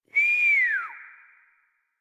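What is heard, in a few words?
horse stop adult, English; Warframe; Duviri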